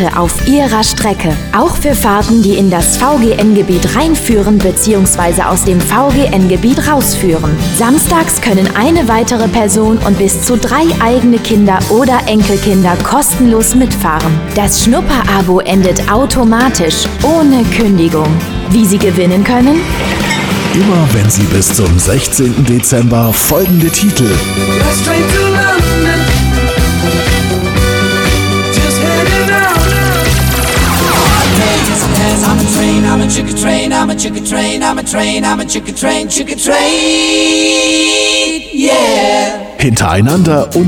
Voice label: Radio; Gong; DB Schnupper-Abo

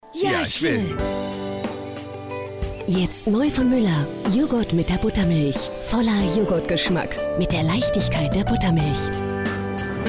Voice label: tv-spot; muellermilch

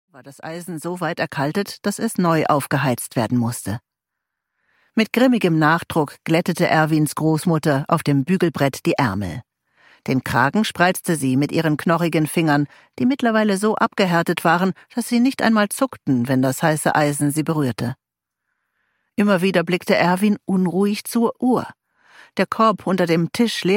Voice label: Hoerbuch Belletristik; Fernwehland; Kati Naumann

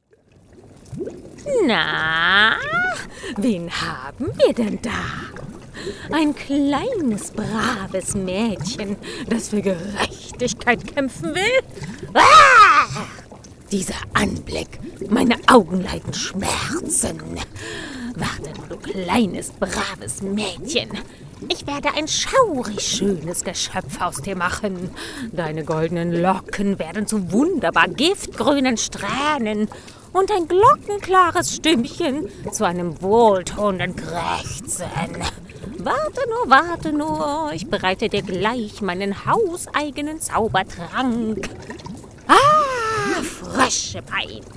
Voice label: Charakter Hexe; LOFT VOICES

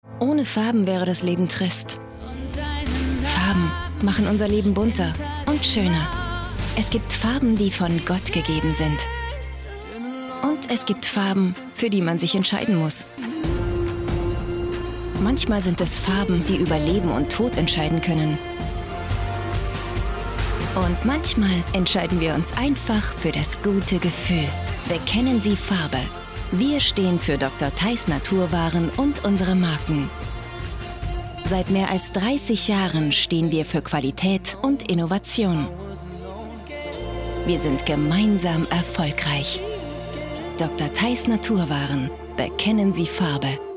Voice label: off natuerlich firmenwerbung; LOFT VOICES

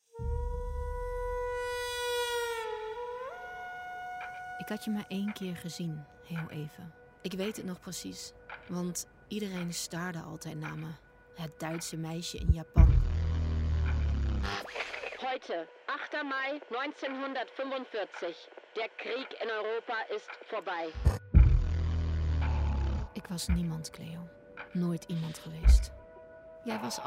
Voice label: niederl Hoerbuch; Nagasaki; LOFT VOICES

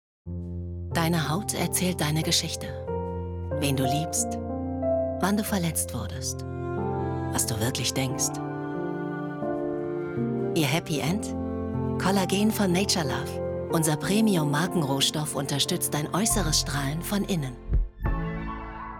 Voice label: Werbung Nature Love, Commercial (Werbung), German; Kölsch; Germany

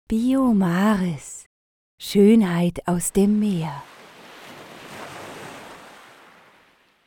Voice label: Werbung Beauty

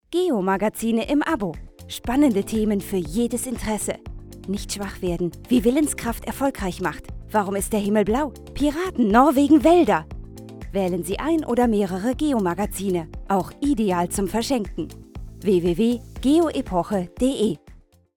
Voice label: Werbung Magazin

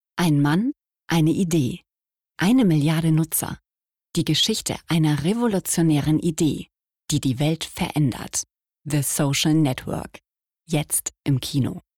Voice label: Casting Werbung

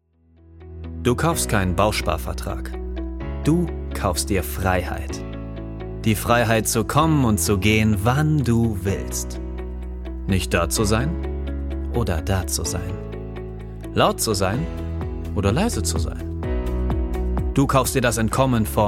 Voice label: Werbung warm locker kreativ

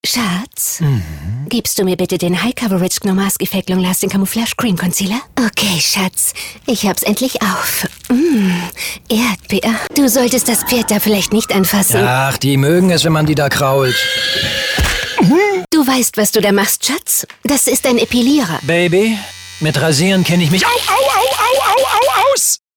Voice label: Werbung Paargespraeche; Mitsubishi

